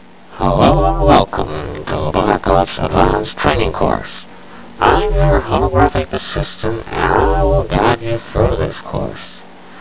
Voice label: holo intro, tutorial; blackops